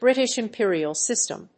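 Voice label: "British Imperial System", English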